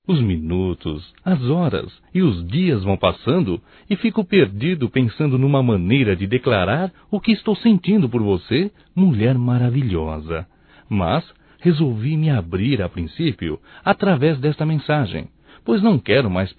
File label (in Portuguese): DECLARAÇAO, Telemensagens, Românticas, masc